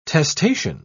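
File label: "testacean", English, British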